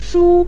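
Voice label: "shu", Mandarin Chinese syllable, neutral tone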